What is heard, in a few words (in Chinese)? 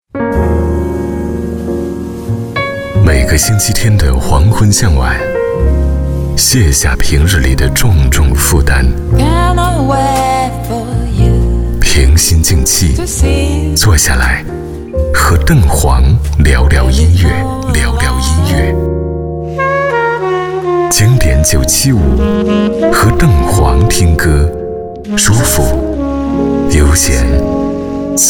男 广播片花 磁性温暖